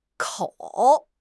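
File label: "kou3", Chinese; HSK1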